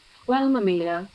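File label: voice acting, es, female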